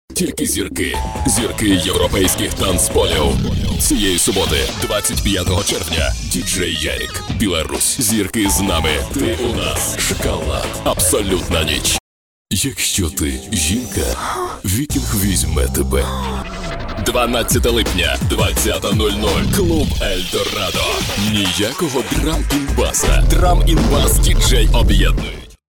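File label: Ukrainian, male, voice over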